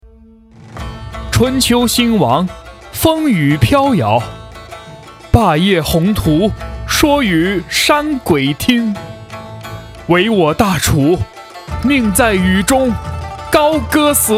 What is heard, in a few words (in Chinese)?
青年音